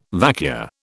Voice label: "vacua", British

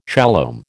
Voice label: "shalom", American

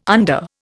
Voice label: "under", Indian

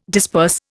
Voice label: "disperse", Indian

